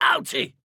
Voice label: hurt